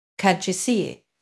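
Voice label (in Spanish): Voice Acting es F, Spanish, female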